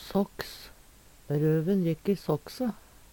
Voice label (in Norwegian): "såks", Numedalsmål